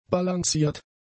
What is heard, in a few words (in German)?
"balanciert", German; adjectives